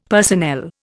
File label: "personnel", Indian; Dictionary